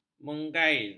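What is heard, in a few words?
"mengail", Malay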